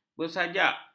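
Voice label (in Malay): "bersajak", Malay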